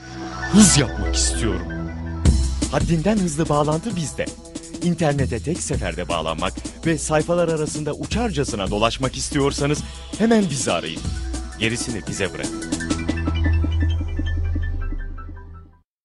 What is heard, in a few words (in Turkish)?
enerjik esprili